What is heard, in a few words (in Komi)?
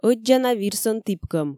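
ӧддзана вирсӧн тіпкӧм, Komi, female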